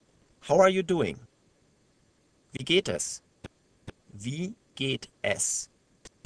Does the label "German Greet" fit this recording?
Yes